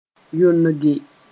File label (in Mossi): "yonge", moore